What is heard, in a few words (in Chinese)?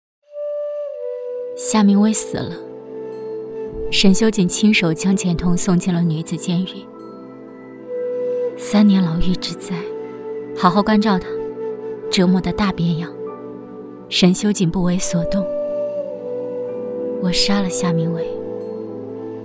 女, 温柔, 小说简介